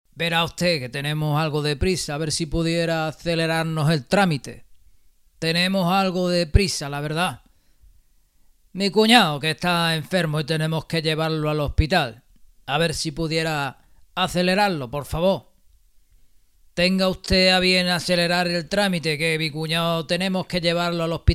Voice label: locutor imitador; locutor imitador de Makinavaja

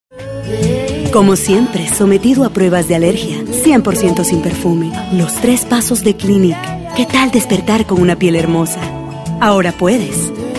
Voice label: locutora mexicana; locutora mejicana; Locutores de México

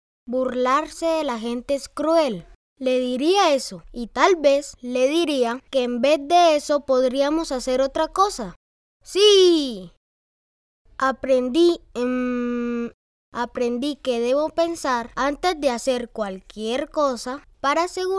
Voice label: locutora infantil espanol neutro latin american child voice; Latin American child voices

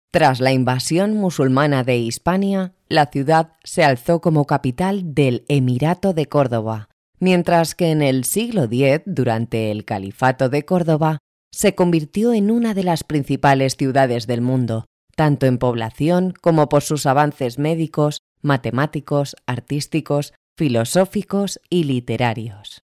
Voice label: locutora spanish voiceover

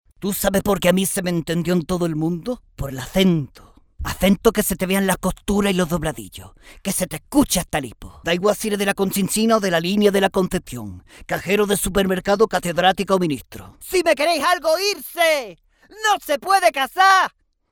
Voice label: locutor imitador

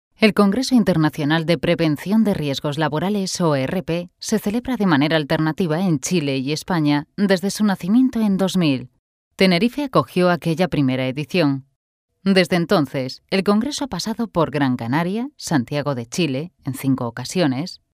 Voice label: locutora spanish female voiceover; Spanisch Sprecherin

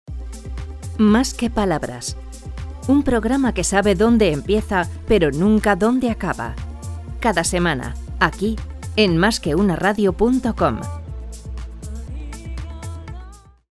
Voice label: indicativo radio

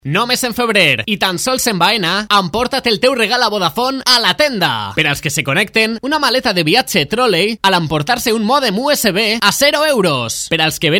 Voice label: locutor valenciano

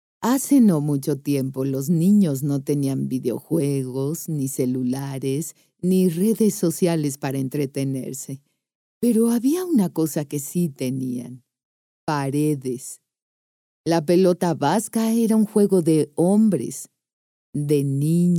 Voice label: locutora abuela mexico voiceover

